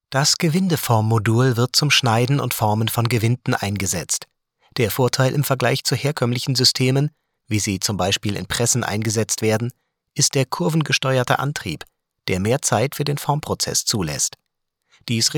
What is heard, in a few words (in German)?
Werbung Erklär